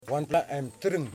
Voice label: "Tooth", Yimas

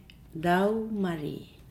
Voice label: "big", Nukuoro

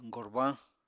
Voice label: "gorbañ", Apatani

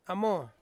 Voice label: "amo", Ansus; wallaby

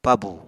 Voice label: "pabù", Apatani

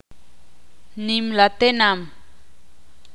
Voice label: "Ciudad", Ixil

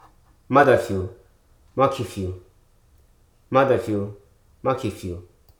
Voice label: "impotent", Hruso

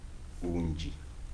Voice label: "january to april", Kibembe

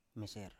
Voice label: "meser", Biak

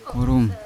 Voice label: "kurum", Yawa; worm

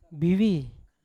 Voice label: "bivi", Yawa; to swell; unat

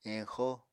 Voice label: "eñxo", Apatani

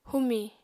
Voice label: "humi", Apatani

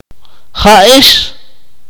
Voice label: "she", akateko

